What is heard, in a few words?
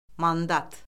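"mandat", Azerbaijani; Orfoqrafiya Lüğəti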